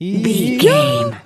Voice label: voice fun character cute cartoon